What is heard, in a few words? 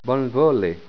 "bonvole", Esperanto